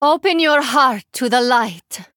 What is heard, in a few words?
Female Draenei Emote Response